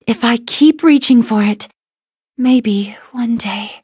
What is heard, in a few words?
levelup en; Cookie Run